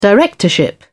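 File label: "directorship", British English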